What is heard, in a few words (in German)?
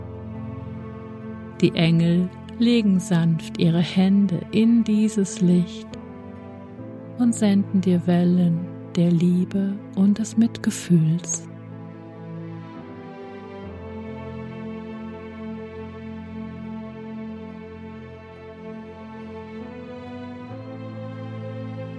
Meditation zur Selbstliebe und Selbstakzeptanz